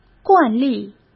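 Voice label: "惯例", Mandarin Chinese; chinese-flashcards; hsk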